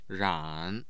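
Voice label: "ran3", Mandarin Chinese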